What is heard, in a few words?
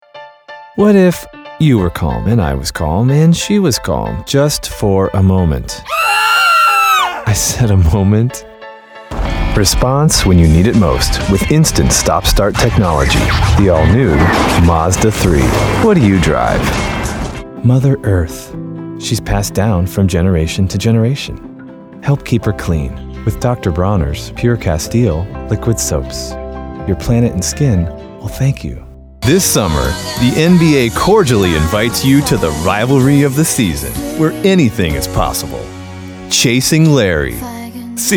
commercial